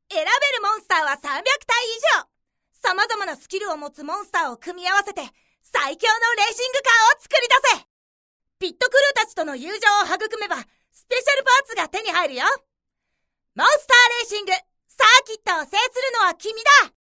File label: narration